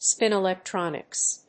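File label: "spin electronics", English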